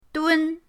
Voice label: "dun1", pinyin